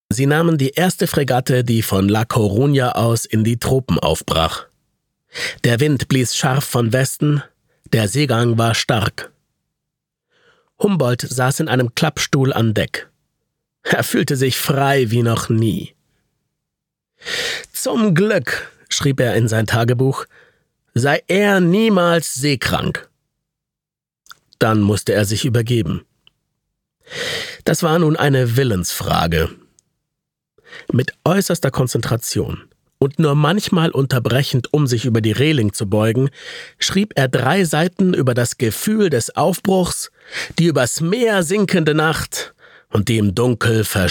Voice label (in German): Hoerbuch